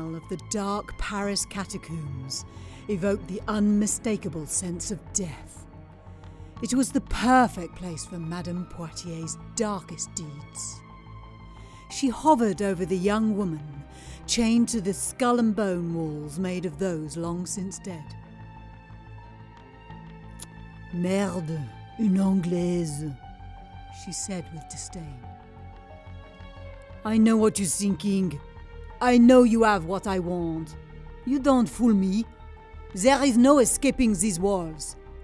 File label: Drama; Paris underworld